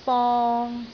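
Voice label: "bong3", Cantonese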